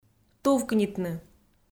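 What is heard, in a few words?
"тувкнитны", Komi, female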